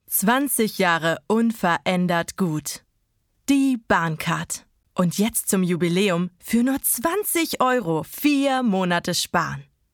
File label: Spot; BahnCard